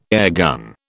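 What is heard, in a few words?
"airgun", British English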